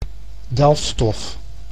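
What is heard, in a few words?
"delfstof", Dutch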